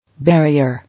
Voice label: "Barrier", English